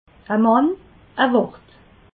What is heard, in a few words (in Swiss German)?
"mann", alsace